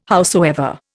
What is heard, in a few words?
"howsoever", Indian